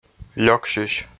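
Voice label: "лёксьысь", Komi, male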